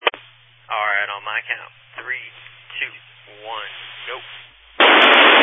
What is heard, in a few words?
Family Radio Service (FRS)